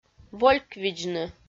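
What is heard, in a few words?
"вольквидзны", Komi, female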